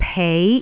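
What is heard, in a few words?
"pei2", Cantonese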